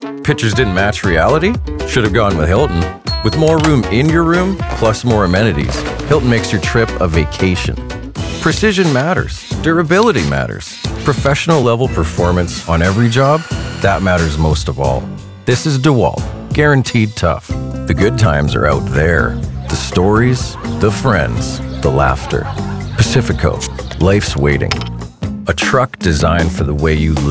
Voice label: Male Voice Over